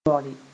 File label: "bõre", moore